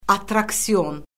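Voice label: "attraksion", Azerbaijani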